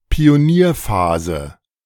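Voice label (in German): "Pionierphase", German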